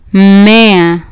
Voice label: "mmea", Kiswahili